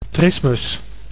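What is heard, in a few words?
"trismus", Dutch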